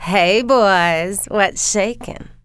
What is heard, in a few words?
shakin, female; kingpin; yolanda